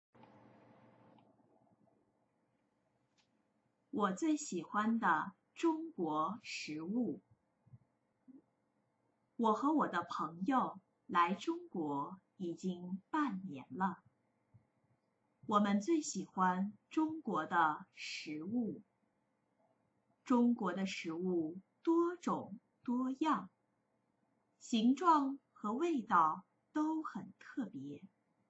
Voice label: chinese reading